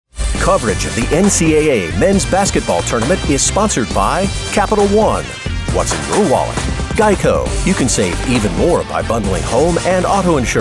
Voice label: Sports Promo

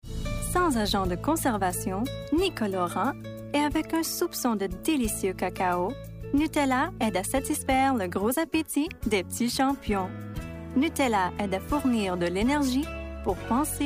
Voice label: VO Adult French

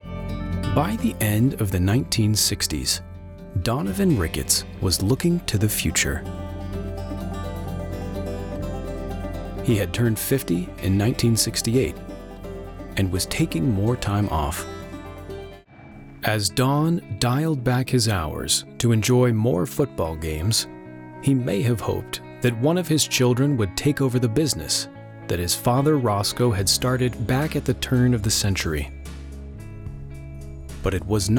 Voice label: Documentary Narration

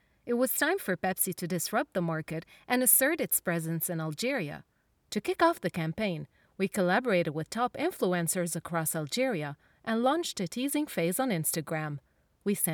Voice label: corporate

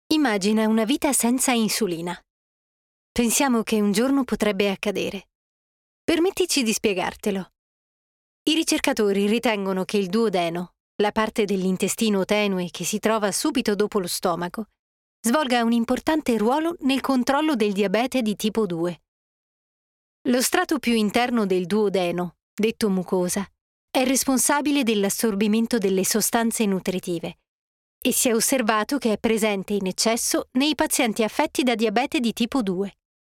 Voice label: E-learning - Medical

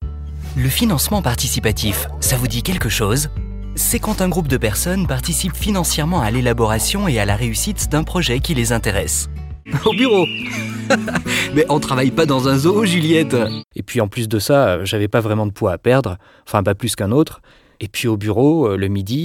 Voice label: French voice over Explainer videos

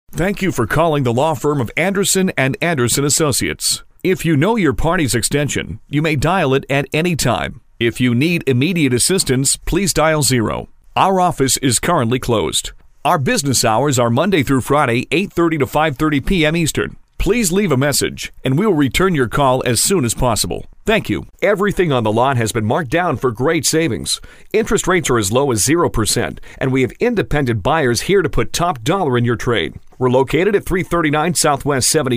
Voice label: Phone IVR

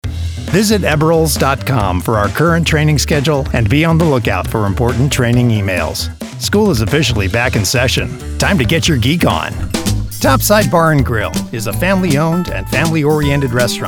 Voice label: Corporate Narration

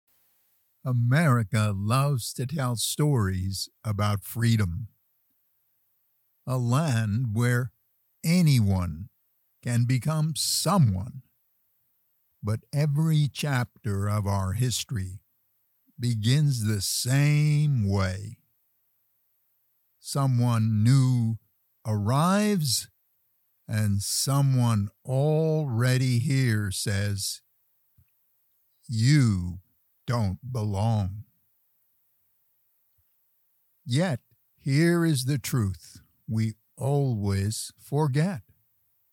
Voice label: DOCUMENTARY; A PLACE FO DREAMS

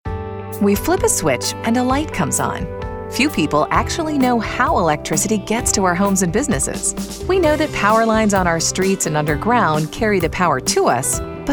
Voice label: Corporate and Narration Corporate VO Voice

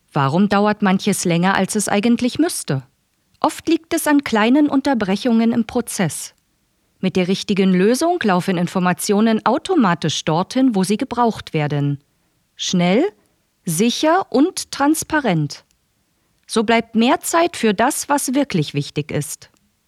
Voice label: Explainer Voiceover DE

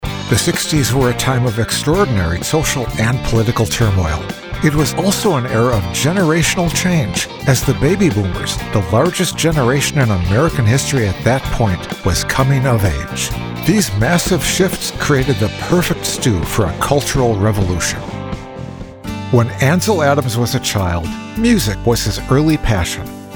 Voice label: Narration

